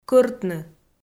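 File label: "кӧртны", Komi, female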